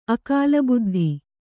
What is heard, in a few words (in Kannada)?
"ಅಕಾಲಬುದ್ಧಿ", Kannada